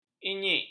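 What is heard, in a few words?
"inyik", Malay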